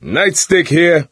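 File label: melee weapons, voice, male; Left 4 Dead 2; coach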